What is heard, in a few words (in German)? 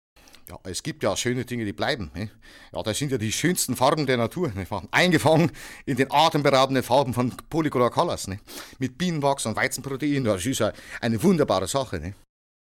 dialekt bay